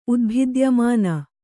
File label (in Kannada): "ಉದ್ಭಿದ್ಯಮಾನ", Kannada